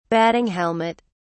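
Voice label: "batting helmet", British English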